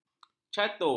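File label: "catur", Malay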